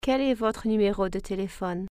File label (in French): whats your phone number, French